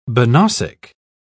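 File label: "banausic", British English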